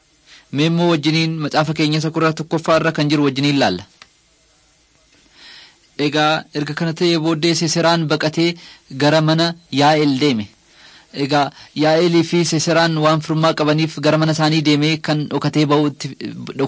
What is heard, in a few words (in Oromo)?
oromo wellega